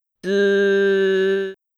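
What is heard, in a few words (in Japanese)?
"づ", Japanese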